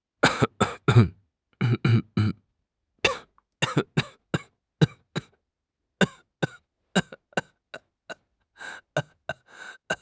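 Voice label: "qian1 bi3", Chinese; HSK2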